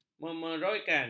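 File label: "memeroikan", Malay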